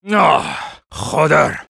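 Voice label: Spy jeers, French; "Ohhhh… Joder."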